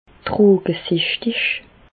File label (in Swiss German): "drogesichtig", Bas Rhin; medecine; toxicomane